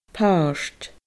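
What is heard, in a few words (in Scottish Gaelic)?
"pàirt", Scottish Gaelic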